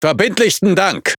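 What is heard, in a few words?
Speech Quick Commands Thank You, German; World Of Warships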